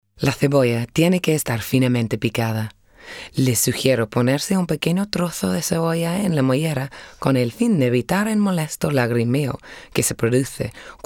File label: spanish french vo